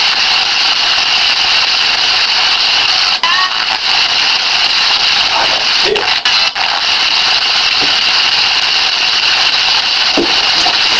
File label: Unexplained Childs Voice (Hi) on the Franksbox and inaudible voice; Case 029 Christian Home